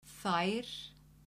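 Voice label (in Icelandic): "þær", Icelandic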